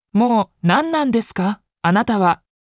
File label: anime